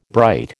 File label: "bright", American